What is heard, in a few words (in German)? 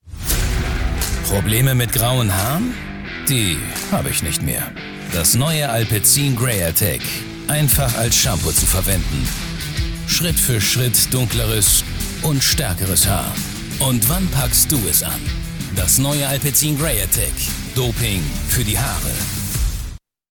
Werbung; Alpecin